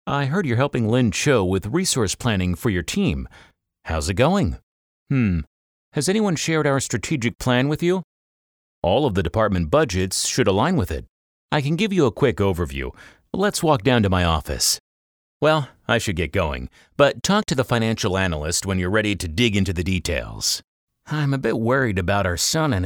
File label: Dialogue Conversations ESL